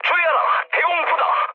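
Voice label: Korean damaged AA mood medium; speech; War Thunder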